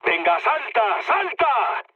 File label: bailout, mood low, speech; War Thunder